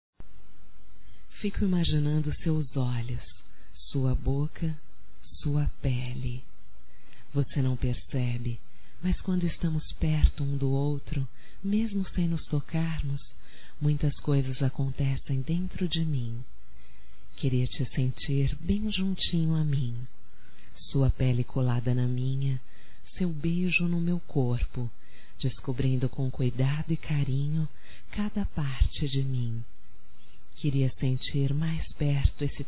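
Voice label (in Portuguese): voz Erótica, masculino; Telemensagens; Só Voz; Telemensagens 2017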